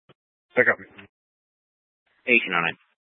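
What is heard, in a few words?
Sheriff Dispatch, scanner audio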